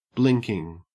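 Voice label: "blinking", English, Australian accent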